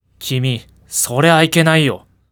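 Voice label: male; 君、それはいけないよ; パワフル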